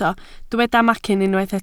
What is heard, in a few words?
"macyn", Welsh